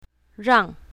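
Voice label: "rang4", Chinese pinyin, south, female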